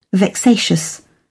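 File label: "vexatious", British English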